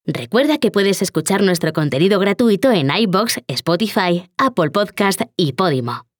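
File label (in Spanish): Demo indicativo radio, Locutora profesional Online en español / Female VoiceOver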